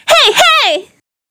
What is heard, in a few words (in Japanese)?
heihei, 女性